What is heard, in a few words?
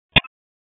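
Police Dispatch, p25; Wake Forest Police Disp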